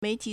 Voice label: "mei2ti3", Chinese